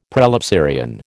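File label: "prelapsarian", American English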